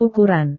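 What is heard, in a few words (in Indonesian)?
"ukuran", Indonesian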